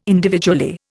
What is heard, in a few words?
"individually", Indian